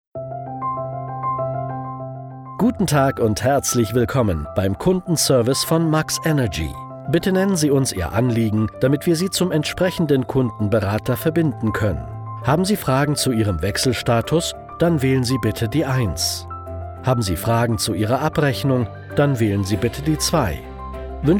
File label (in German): Anrufverteileransage